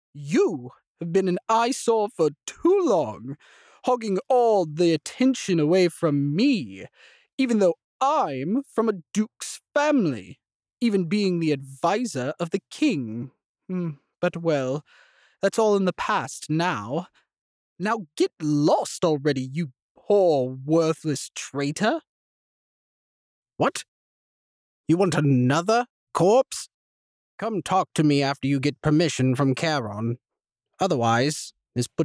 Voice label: Goofy Characters voice sample